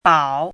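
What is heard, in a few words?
"bǎo", Mandarin Chinese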